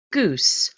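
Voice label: "goose", English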